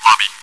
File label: chitchat, bot, voice commands; svencoop